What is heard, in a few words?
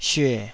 "syue3", Taiwanese